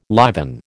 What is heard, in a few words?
"liven", American